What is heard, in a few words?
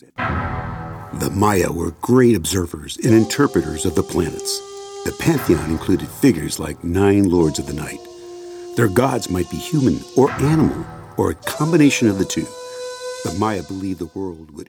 Narration